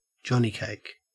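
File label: "johnnycake", Australian English; pronunciations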